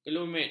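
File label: "kelumit", Malay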